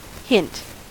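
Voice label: "hint", American English; pronunciations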